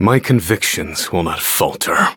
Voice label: eng menu tap; black pudding